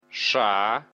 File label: belarusian alphabet sh